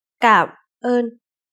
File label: cam on slow